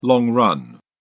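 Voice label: "long run", British English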